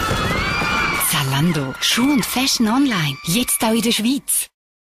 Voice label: tv spot; zalando